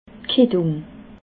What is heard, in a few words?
"quittung", alsace